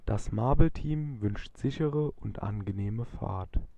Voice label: German; kde; marble